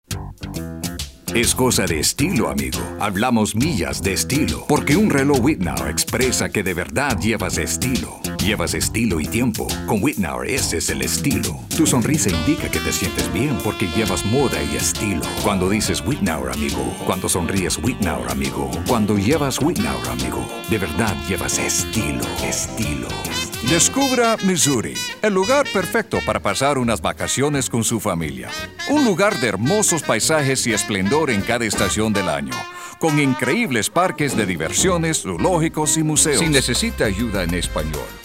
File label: Spanish